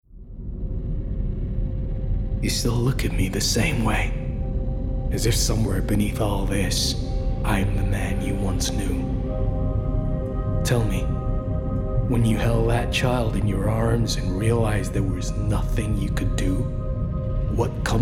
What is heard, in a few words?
Video Game Understated Evil US